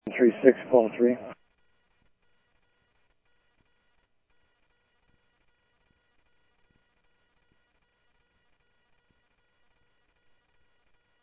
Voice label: scanner audio trunk; ASU; ASU Park 1